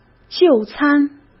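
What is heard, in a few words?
"就餐", Mandarin Chinese; chinese-flashcards; hsk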